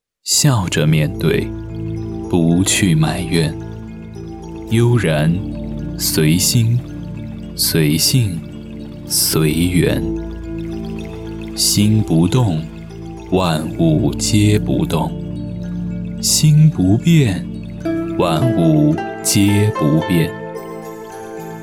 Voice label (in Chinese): 男 抒情 人生在世, 国语男声配音